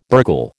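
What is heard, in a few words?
"burgle", American English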